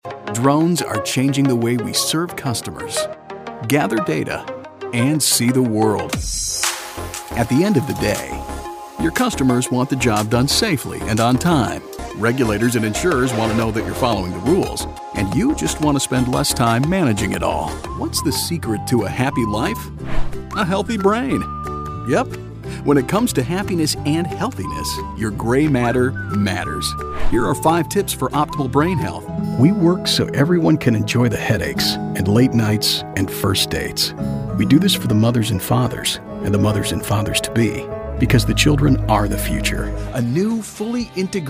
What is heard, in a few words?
men corporate; Fun, "guy's guy."